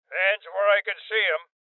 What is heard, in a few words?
Order To Surrender Male Old; rebel cops 2019 gamerip